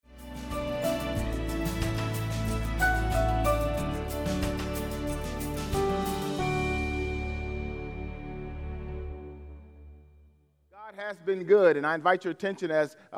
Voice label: English Sermon